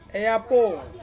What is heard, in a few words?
"apo", to fly, Ansus